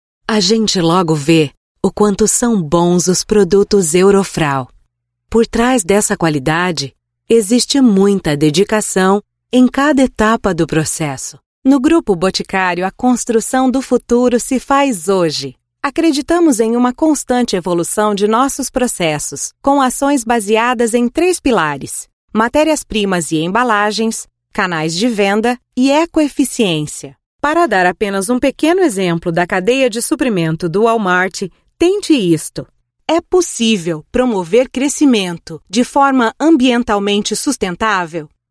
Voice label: Brazilian Portuguese, Brazilian Portuguese Female